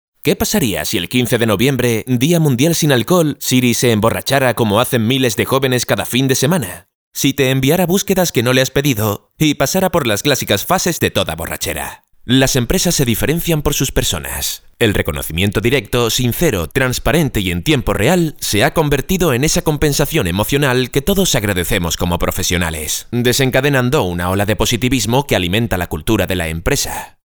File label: Spanish